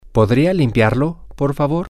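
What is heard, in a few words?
will You Clean It Please, Spanish, male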